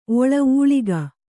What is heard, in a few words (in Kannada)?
"ಒಳ ಊಳಿಗ", Kannada